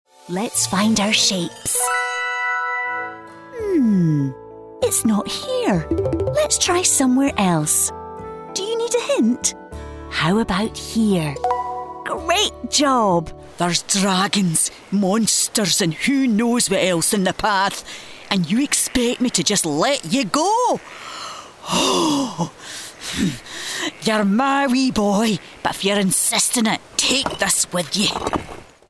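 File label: Scottish English, female, Gaming characters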